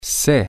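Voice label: I know how, spanish, male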